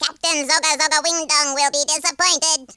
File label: boring, speech, Alien; Worms3D